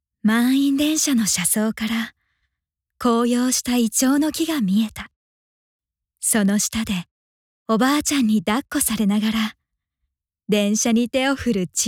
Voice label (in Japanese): ナレーション